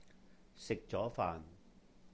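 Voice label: "sihk-jó-faahn", Cantonese; Have eaten (a meal); 食咗飯; sik6zo2faan6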